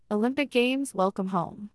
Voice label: baseline conversational female